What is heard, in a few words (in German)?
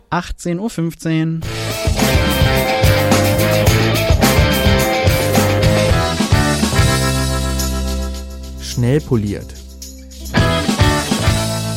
nachrichten; Nachrichten am 3. Juli 2014